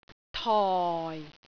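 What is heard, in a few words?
"toi4", Cantonese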